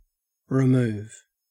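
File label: "remove", Australian English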